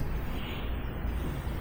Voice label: upstairs female